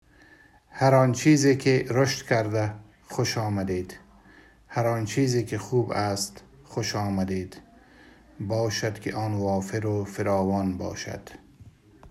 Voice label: dari